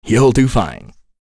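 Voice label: Vox Happy, voices heroes English; kingsraid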